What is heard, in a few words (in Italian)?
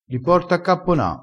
"cappona", crespinese